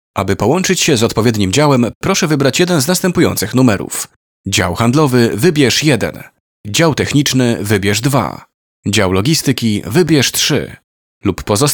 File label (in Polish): demo ivr